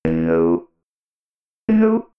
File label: robot voice no